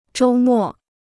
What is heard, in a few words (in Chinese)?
"周末", Chinese